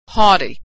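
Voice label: "haughty", American English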